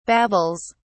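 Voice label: "babbles", British English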